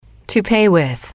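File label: "to pay with", English, female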